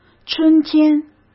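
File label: "春天", Mandarin Chinese; hsk; chinese-flashcards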